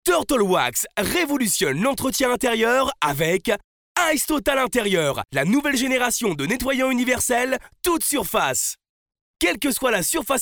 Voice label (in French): french m commercial; voice demos